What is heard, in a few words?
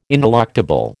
"ineluctable", American